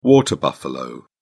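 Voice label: "water buffalo", British English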